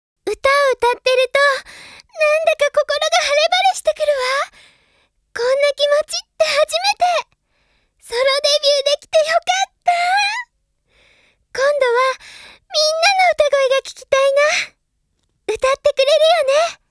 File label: Ending Narration; Ojamajo Doremi; Ojamajo Doremi MEMORIAL CD BOX